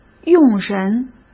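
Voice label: "用人", Mandarin Chinese; chinese-flashcards; hsk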